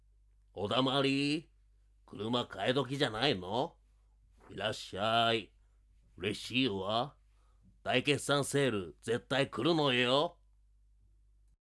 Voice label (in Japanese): CM スパイスボイス 大御所演歌歌手; 鬼滅のカラス